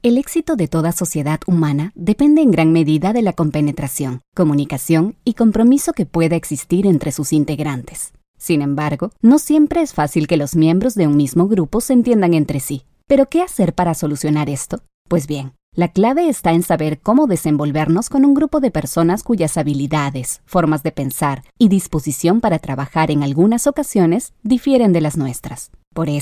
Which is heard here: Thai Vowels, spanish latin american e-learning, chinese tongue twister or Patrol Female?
spanish latin american e-learning